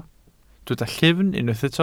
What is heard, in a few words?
"llyfn", Welsh